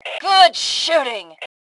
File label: Compliment Great Shot, Female; T2 Female 03